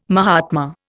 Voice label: "mahatma", Indian